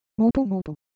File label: Japanese Motion, Ideo Phones